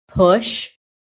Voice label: "push", american

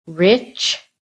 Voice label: "RICH", american

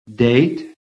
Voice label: "DATE", american

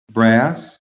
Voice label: "brass", phonetic

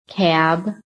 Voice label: "CAB", american

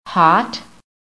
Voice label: "HOT", american; PHONETIC

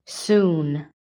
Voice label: "SOON", american

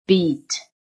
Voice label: "BEAT", american

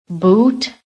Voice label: "BOOT", american

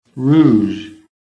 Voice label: "ROUGE", american

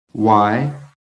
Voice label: "why", american

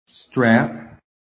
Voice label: "strap", american; PHONETIC

